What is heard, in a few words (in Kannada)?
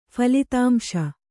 "ಫಲಿತಾಂಶ", Kannada; phalitāmśa; noun; result.